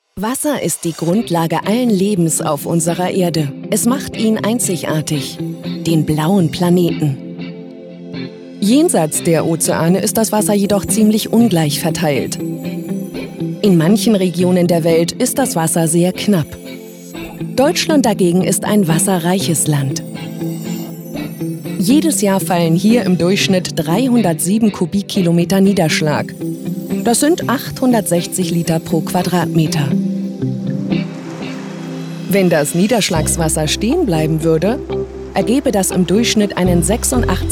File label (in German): Imagefilm